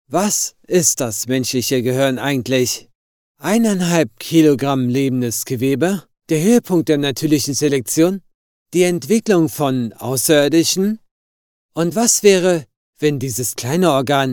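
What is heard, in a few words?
Doku, male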